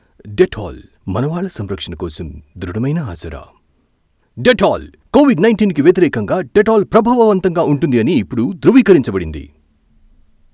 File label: Telugu Ad